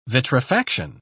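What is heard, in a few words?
"vitrifaction", English, British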